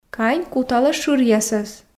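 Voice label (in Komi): кань куталӧ шыръясӧс, Komi, female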